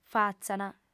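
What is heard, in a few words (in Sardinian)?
"fatzant", female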